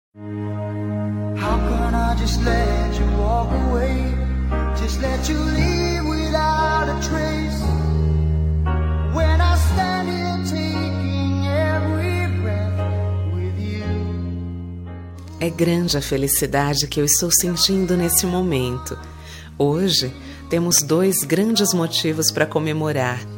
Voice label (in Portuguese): dia dos namorados aniversario feminina; dia dos namorados aniversario voz feminina